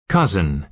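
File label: "cousin", American English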